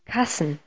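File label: "kh2sn", Proto-Indo-European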